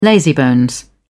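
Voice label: "lazybones", English, British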